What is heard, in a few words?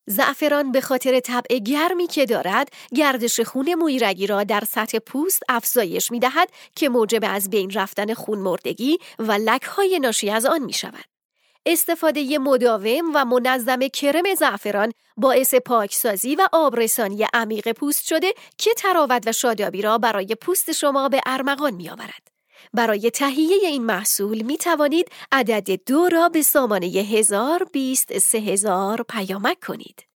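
Farsi, female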